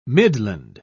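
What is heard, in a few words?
"midland", British English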